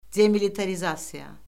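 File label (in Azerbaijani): "demilitarizasiya", Azerbaijani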